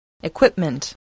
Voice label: "equipment", voice, english